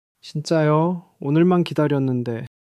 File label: sadness